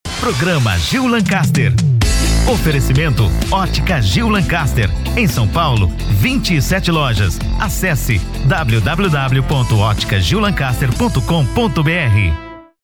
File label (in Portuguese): Locutor; oferecimento